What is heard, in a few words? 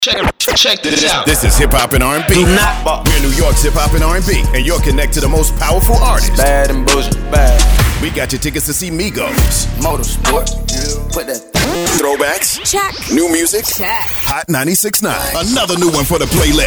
URBAN IMAGING